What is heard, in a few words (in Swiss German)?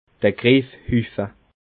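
"griffhuffe", Alsatian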